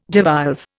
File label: "devolve", Indian